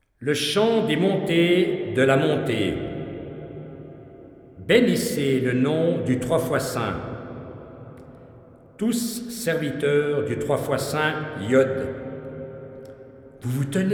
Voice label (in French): Narration psaume; psaume 134